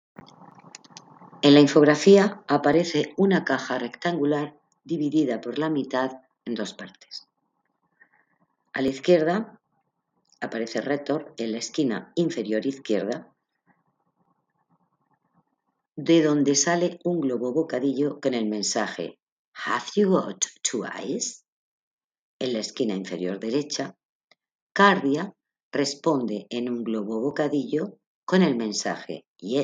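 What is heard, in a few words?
count to five in french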